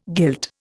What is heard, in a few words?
"guilt", Indian